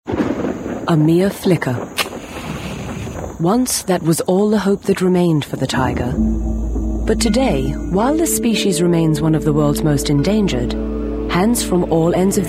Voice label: commercial

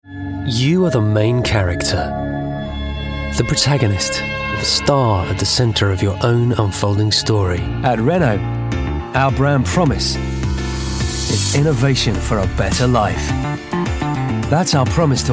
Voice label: corporate

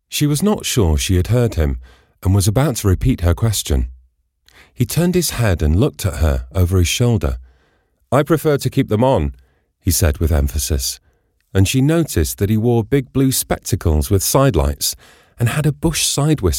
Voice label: audiobook

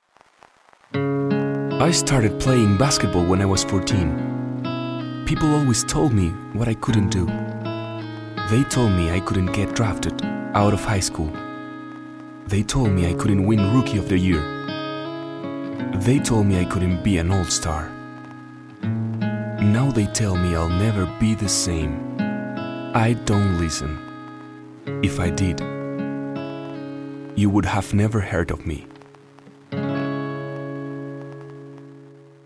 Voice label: latin spanish male english

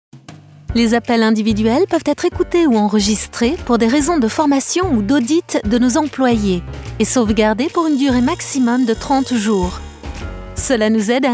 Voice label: on hold

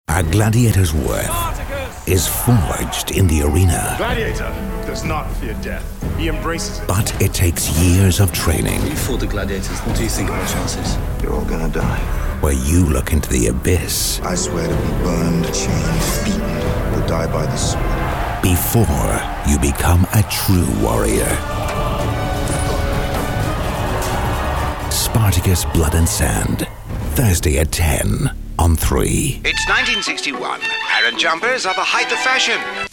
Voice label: irish showreel

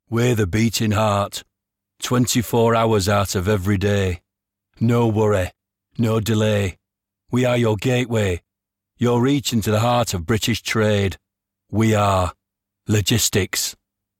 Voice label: impersonation north; sean bean impersonation north

